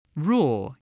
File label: "raw", english